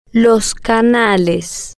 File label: "los Canales", spanish